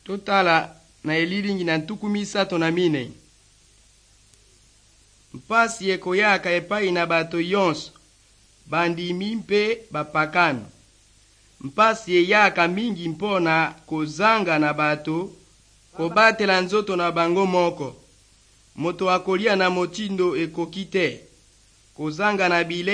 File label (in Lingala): good news lingala west, drama